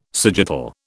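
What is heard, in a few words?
"sagittal", British English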